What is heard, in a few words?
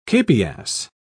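"capias", British English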